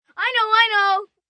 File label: "I Know I Know" M, Response, 1 boy, 10 yrs.; Talking; VoiceBox